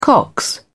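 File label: "cox", British English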